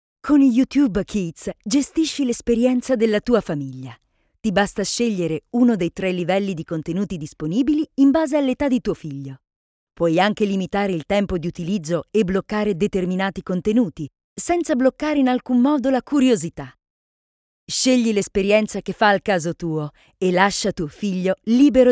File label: italian commercial; italian voice over artists